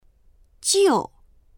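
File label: "jiu4", Chinese; 旧; 古い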